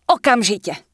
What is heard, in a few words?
Human Female Merchant Start Shopping; wowpreklad; czwow; NPC